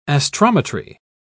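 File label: "astrometry", British English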